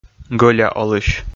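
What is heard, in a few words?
"гӧля олысь", Komi-Zyrian, male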